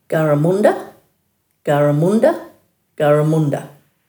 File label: "garamunda", Dhurga